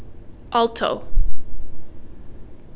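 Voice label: "alto", CAN, female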